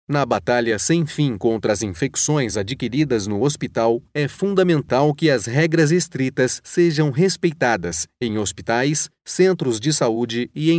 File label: Narration